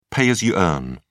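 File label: "pay as you earn", British English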